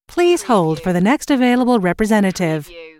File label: US female phone prompt Please hold for the next available representative; lynne publishing